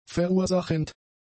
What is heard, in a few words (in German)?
"verursachend", German; adjectives